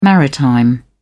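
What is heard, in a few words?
"maritime", English, British English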